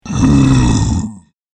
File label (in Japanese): ゾンビボイス